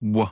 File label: phoneme wolf, American; American IPA phonic